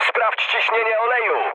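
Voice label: damaged oil, mood med, Polish speech; War Thunder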